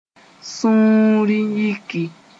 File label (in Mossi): "sũuri yiki", Moore; get annoyed